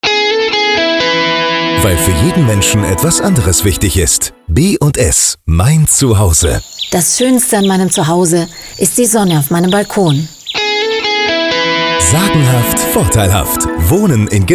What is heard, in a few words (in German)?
radio Erzieherin